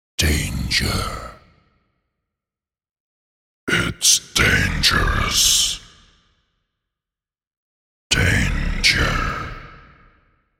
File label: Danger’ and ‘Dangerous’ spoken in deep male voice; Exclamations (Sound Effects:Voice)